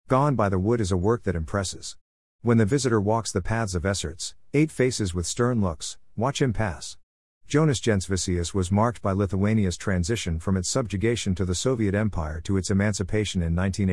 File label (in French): anglais